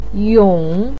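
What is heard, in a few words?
"yong3" Chinese syllable, pinyin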